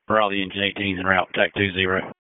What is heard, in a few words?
PS TAC, p25